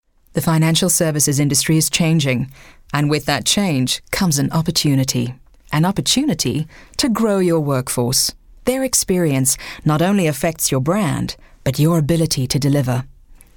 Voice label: English Corporate